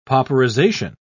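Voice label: "pauperization", British English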